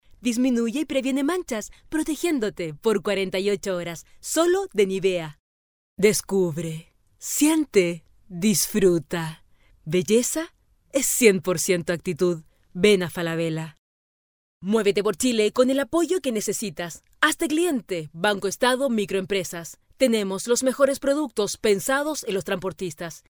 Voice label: locutora chilena chilean voiceover; Banco de voces de locutores chilenos

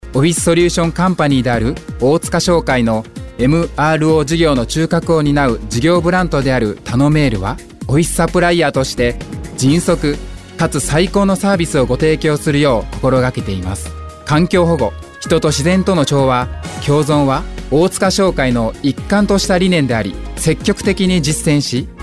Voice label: locutor japones japanese voiceover; locutor de Japón

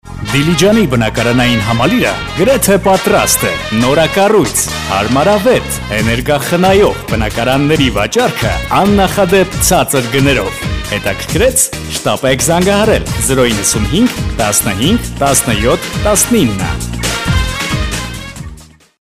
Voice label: male armenian talent locutor armenio

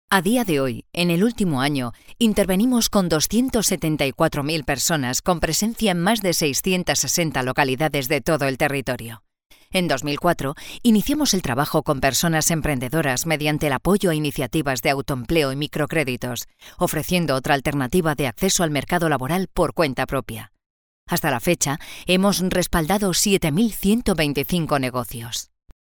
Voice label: locutora espanola spanish voiceover

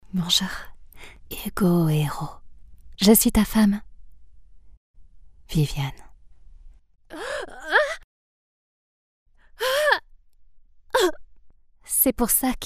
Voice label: locutora francesa doblaje french dubbing voices

